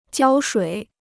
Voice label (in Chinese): "胶水", Chinese